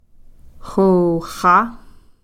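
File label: "yesterday", Takelma word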